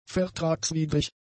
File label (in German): "vertragswidrig", German; adjectives